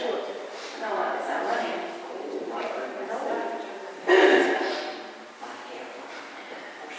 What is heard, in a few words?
female voice; perrinsville school house westland mi